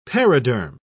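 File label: "periderm", British English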